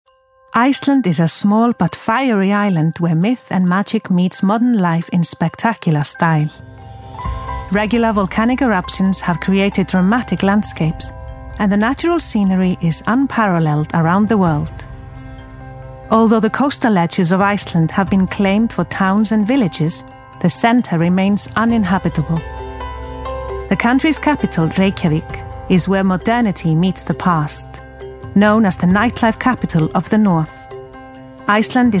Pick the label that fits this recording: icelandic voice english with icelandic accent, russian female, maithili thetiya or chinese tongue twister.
icelandic voice english with icelandic accent